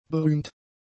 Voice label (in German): "berühmt", German; adjectives